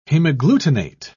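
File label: "hemagglutinate", British English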